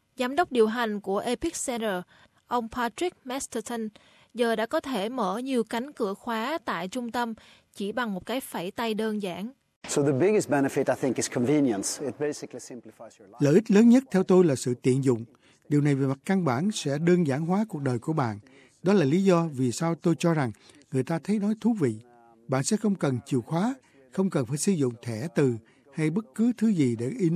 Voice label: vietnamese

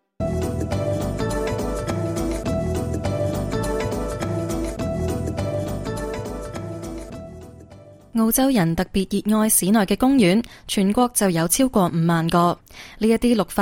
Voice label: cantonese; 共享澳洲公園 禮儀守則知多少？; ao zhou ding ju zhi nan; SBS Chinese

